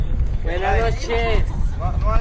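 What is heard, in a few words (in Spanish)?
"buenas noches", español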